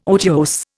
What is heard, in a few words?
"otiose", Indian